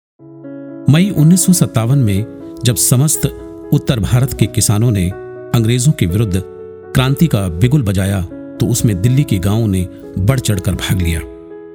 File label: audiobook hindi